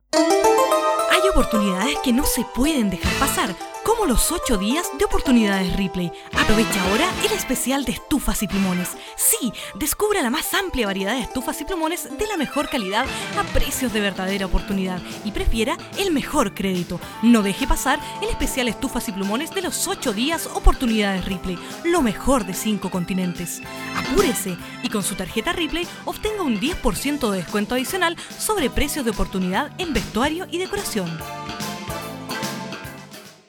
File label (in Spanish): espanol comercial; demo comercial; Ripley